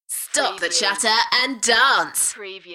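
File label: UK female, DJ vocals; beanstalk-audio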